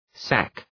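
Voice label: "sac", English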